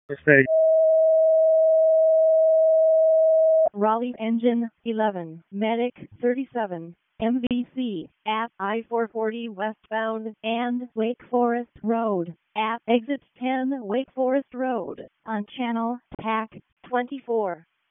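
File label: Raleigh Fire Department ALERT, p25; wake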